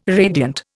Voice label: "radiant", Indian